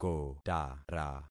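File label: "kotara", concatenated syllables; Affixing experiment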